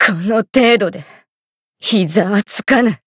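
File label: Voice win barely, Japanese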